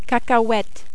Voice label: "cacahouete", French

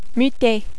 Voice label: "muter", French

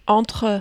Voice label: entre eux, French

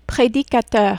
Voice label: "predicateur", French

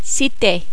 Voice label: "citer", French

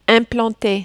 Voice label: "implanter", French

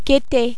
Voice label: "guetter", French

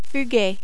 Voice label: "fuguer", French

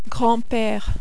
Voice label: grand pere, French

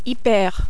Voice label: "hyper", French

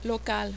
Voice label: "local", French

